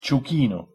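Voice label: "ciuchino", pronunciation, Italian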